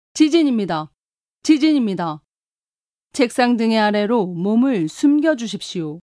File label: korean